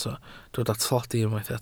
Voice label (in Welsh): "tlodi", Welsh